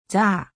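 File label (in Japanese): "ざ", 日本語; 五十音基礎聽解題目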